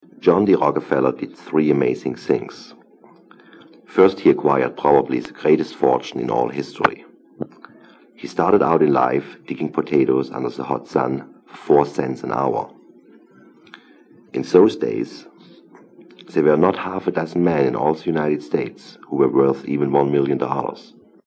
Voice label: German